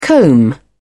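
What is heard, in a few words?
"comb", British English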